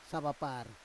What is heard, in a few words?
"savapar", Roon